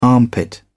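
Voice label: "armpit", British English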